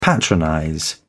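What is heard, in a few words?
"patronize", British English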